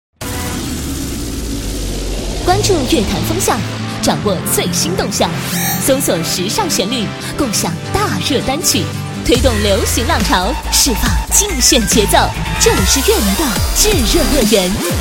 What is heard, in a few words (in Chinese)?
国语女声配音，栏目包装